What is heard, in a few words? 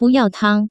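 buyao tang, Taiwan Mandarin; delivery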